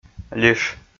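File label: "лиш", Komi, male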